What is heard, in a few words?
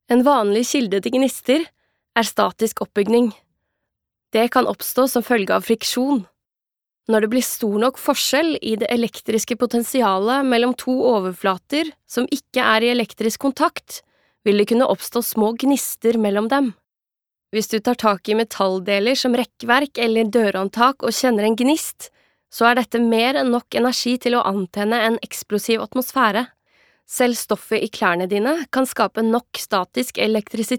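no elearning, Norwegian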